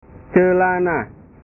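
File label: "celana", Indonesian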